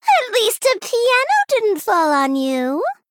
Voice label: Female Gnome Loss; Rin; Mission 8 Loss